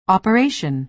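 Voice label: "operation", American English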